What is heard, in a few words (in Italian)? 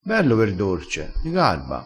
"garba", crespinese